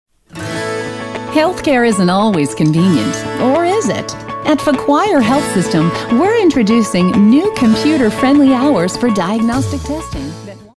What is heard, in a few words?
sweet sincere